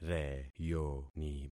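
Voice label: "reyoni", concatenated syllables; Affixing experiment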